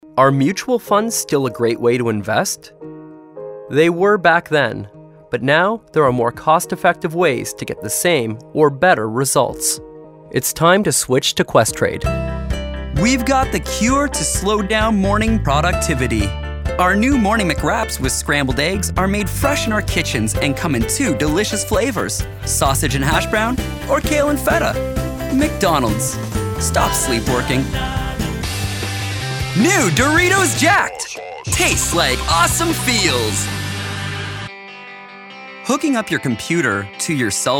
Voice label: Commercial; TaDa